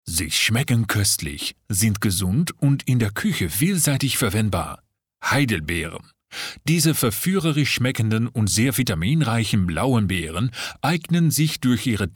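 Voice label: dutch male narration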